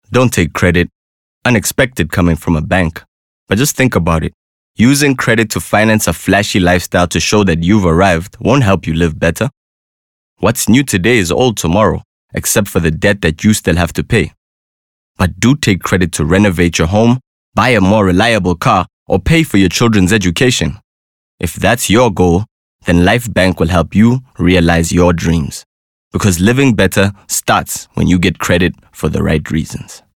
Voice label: English Corporate